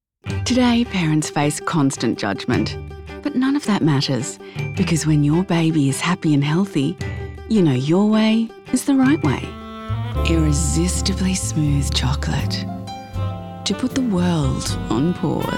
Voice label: Voice Demo